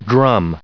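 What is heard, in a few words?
"drum", US English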